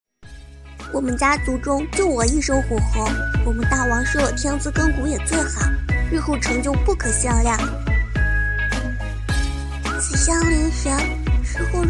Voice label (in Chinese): 小女孩萝莉音奶声奶气